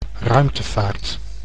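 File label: "ruimtevaart", Dutch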